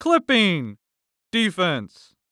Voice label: Sports Human Voice Male Young Man Football Referee; Clipping Defense